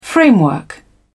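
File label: "framework", English, British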